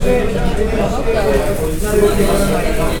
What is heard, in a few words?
surprise, 居酒屋, Surprise; ・驚き; 感情プロファイルマッチング環境音